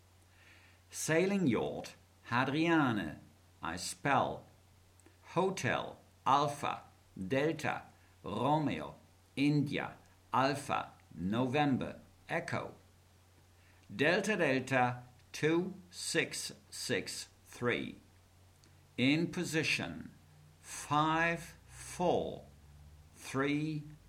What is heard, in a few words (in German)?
Seefunktext